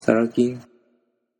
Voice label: "sarakin", Japanese